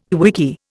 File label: "quickie", Indian